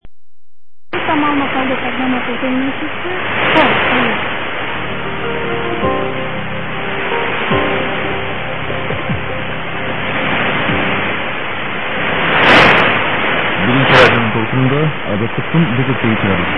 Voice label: Kyrgyz, shortwave recording